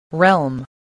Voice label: "realm", english